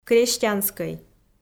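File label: "крестьянскӧй", Komi, female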